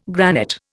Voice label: "granite", Indian